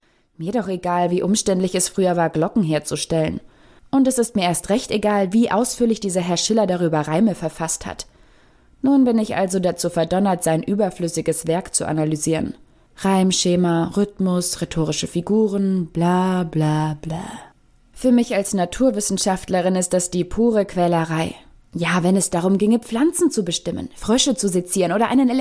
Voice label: Jugendhoerbuch; Teenie / genervt

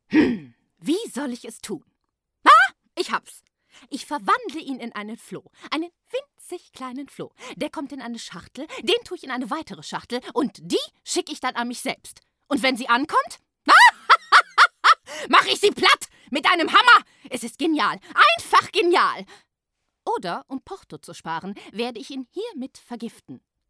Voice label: Synchron Trickstimme; Trickfilm - schrill

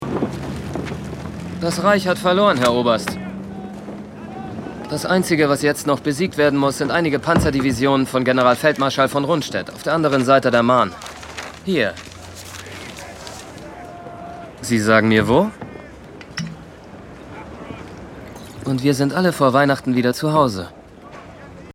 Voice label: Synchron; Blood&Treasure

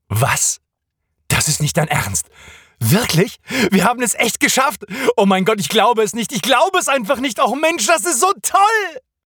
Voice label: freudig

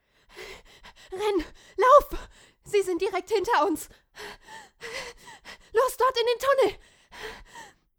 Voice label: Panik

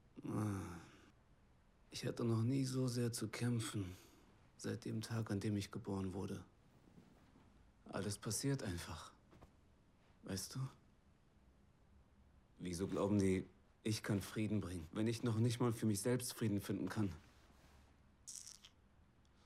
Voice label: erschoepft; Synchron; Regie: Marius Clarén

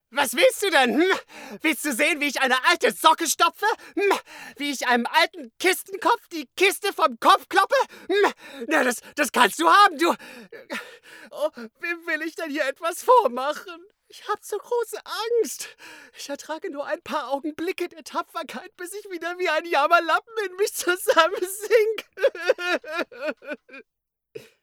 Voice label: Charge Comic

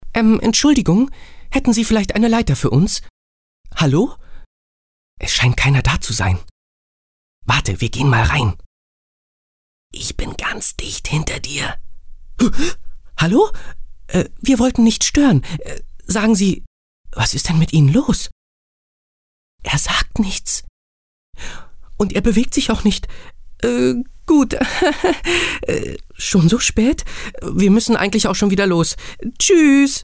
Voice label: Junge Trickstimme; Neugier und Gefahr!

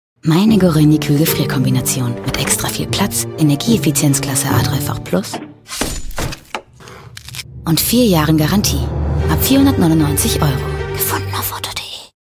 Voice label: Foodstylistin TV; Otto

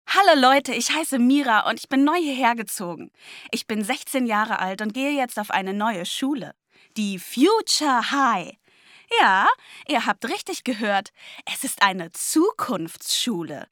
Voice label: junge Stimme Teenie

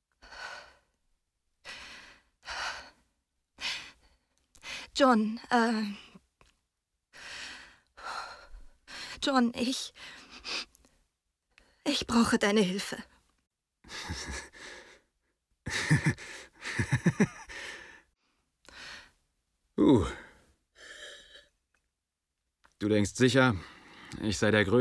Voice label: Synchron

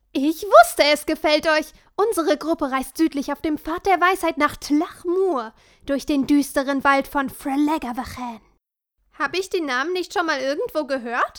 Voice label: Zeichentrick

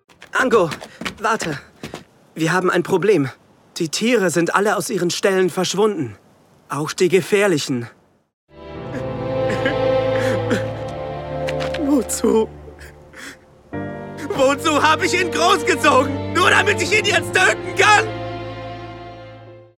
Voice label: ANIME DRAMATISCH; Gengorou

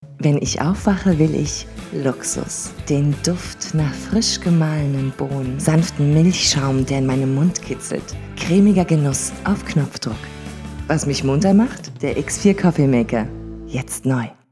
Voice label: Werbung Kaffee; Werbung Coffeemaker

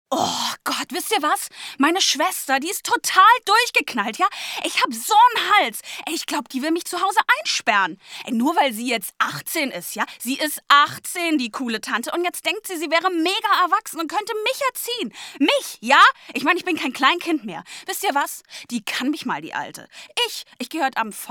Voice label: Megagenervter Teenie

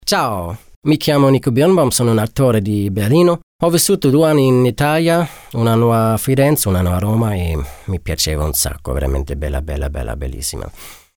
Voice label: italienisch; Ciao!

